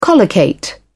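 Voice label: "collocate", British English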